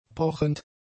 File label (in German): "pochend", German; adjectives